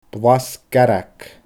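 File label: "blas garg", Scottish Gaelic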